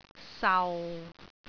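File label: "sau6", Cantonese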